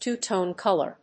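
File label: "Tone color", English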